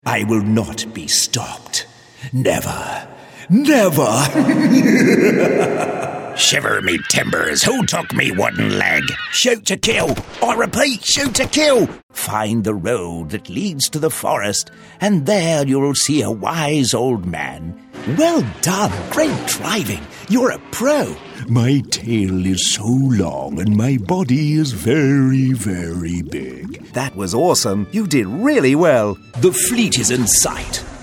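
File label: British, Toys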